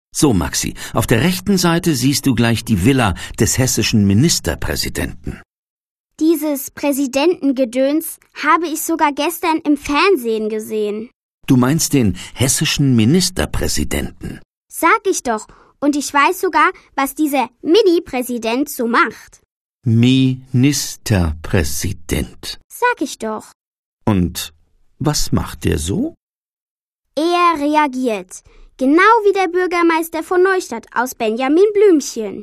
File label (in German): hoerspiel